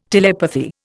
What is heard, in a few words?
"telepathy", Indian